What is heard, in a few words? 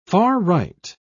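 "far-right", British English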